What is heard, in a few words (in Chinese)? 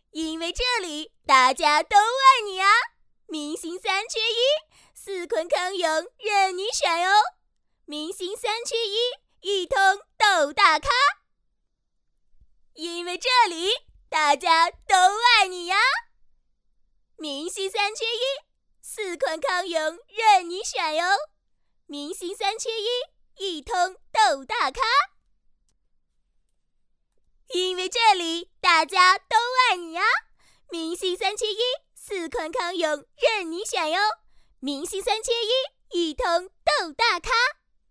女; 明星三缺一